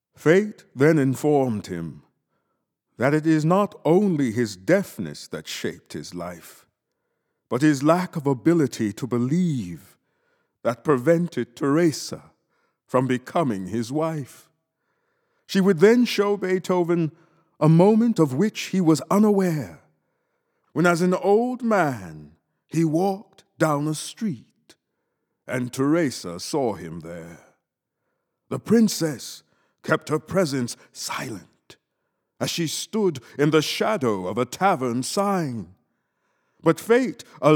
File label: narration